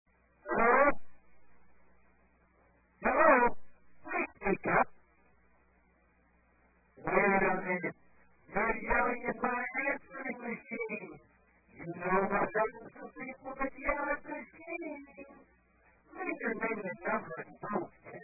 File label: Yelling At The Machine; FunnyPhone